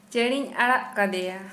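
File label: Santali female free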